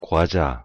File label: "과자", Korean